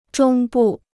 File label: "中部", Chinese